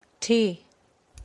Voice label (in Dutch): "ti", Maleseet-Passamaquoddy; thee-in-andere-talen